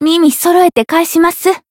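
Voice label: Voice healed, Japanese; hoozuki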